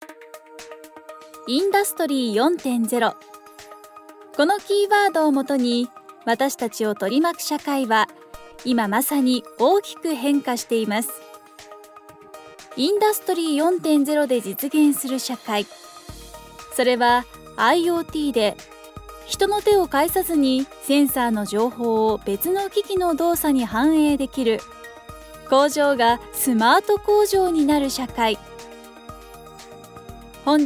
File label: narration, voices